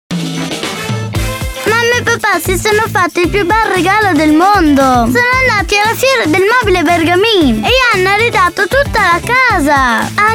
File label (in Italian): BIMBA, demo voci pubblicita radiofonica